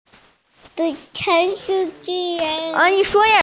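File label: "shui3chi2", Mandarin Chinese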